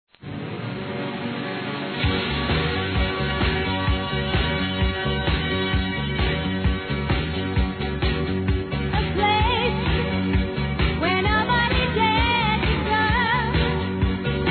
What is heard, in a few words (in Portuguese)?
TE QUERO MUITO BEM (M)